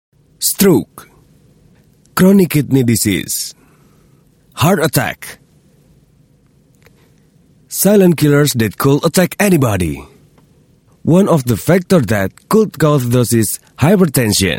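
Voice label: Bahasa Indonesia; SmartBlood VO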